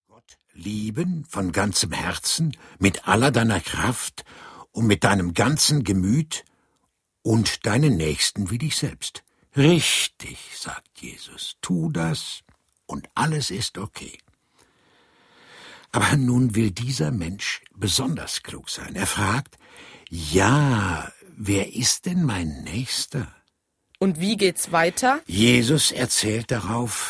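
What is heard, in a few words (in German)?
Erzähler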